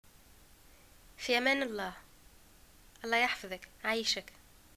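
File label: greeting farewell, Tunisian Arabic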